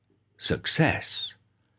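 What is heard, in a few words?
"success", English pronunciation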